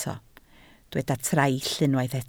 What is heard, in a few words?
"traill", Welsh